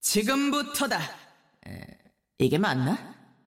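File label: Korean; 索杰恩（守望先锋2）; 终极技能; 韩语; 지금부터다! (멈칫) 이게 맞나?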